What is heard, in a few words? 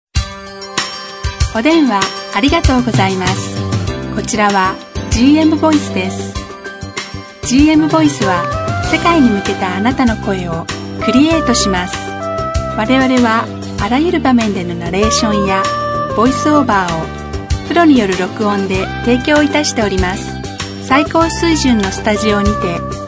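Japanese female voice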